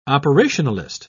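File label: "operationalist", British English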